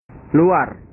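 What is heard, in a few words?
"luar", Indonesian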